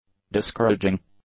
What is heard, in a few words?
"discouraging", English; adjectives